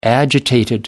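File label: "agitated", British English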